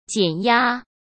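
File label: "减压", Mandarin Chinese; chinese flashcards; hsk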